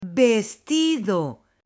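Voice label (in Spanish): "VESTIDO", Spanish, female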